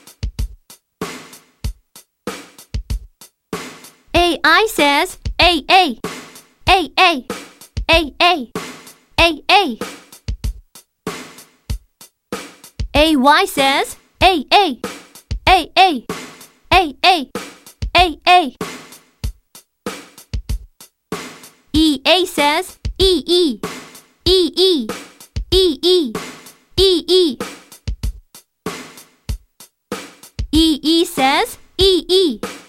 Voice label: Polite Vowels Point And Say The Key Words